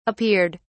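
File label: "appeared", British English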